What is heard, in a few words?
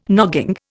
"nogging", Indian